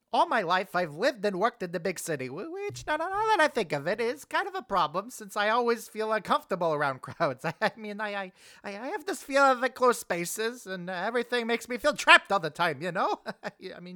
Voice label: Character Voice